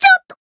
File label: damaged, jp; bivette